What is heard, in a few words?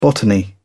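"botany", British English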